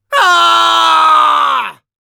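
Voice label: Demoman pain critical death, Spanish; Grito de muerte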